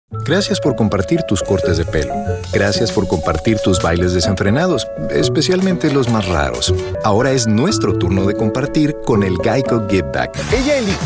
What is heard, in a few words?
Spanish Commercial